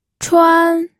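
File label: "chuan", Mandarin Chinese, first tone; pinyin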